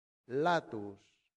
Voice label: "latus", Latin; santillana